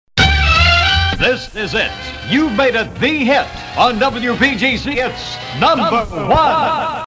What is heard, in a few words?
sweeper; number 1 hit; wpgc; 1959; 1962; stager